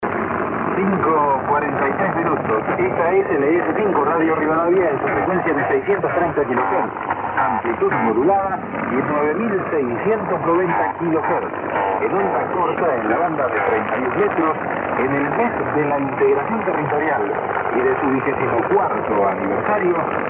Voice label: Radio 9690 kHz; Radio Rivadavia

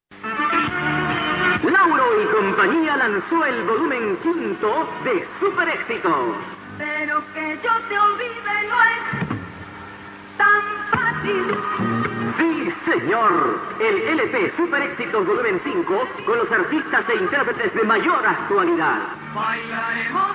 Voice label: La Paz 6185 kHz, Bolivia; R Batallon Colorados

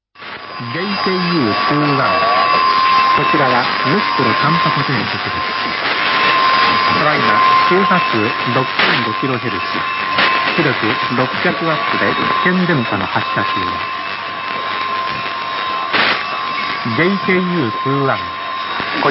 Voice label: Sapporo Nopporo 6005 kHz; JKU21; 600w

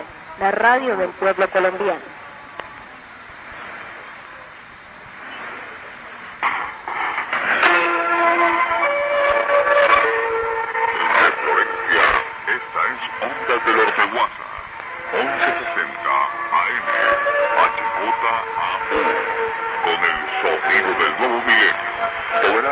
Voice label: Florencia 4975 kHz; Ondas del Orteguaza

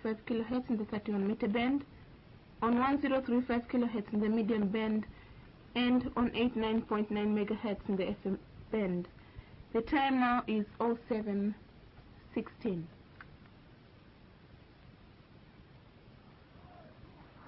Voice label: Tanzania External Service 1035 kHz MW; Radio Tanzania; R Freedom prgm

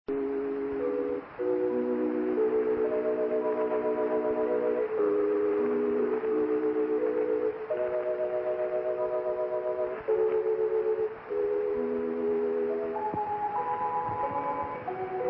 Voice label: Chiquimula 4050 kHz English ID, Guatemala; Radio Verdad

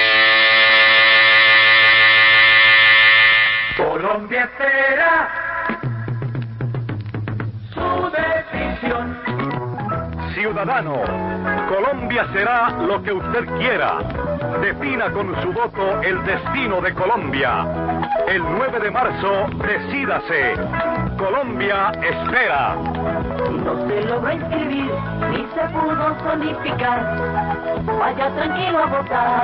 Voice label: R Super Bogota 6065 kHz

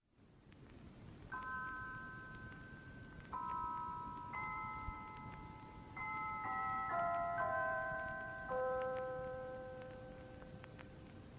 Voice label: Radio Tanzania External Service 1035 kHz MW

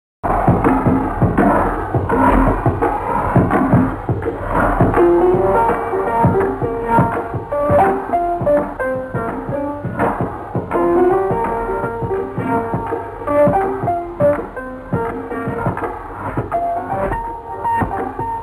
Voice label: Swaziland Commercial Radio 6155

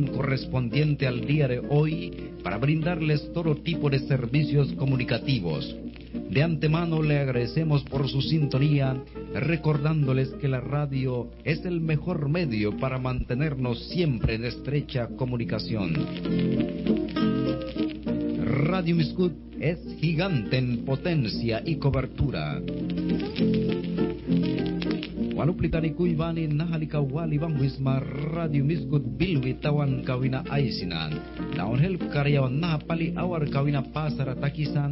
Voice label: Nicaragua Miskut Puerto Cabezas 5770 kHz; master tape dub

